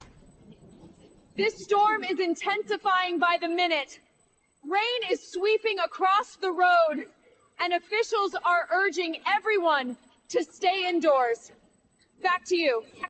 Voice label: storm reporter cleaned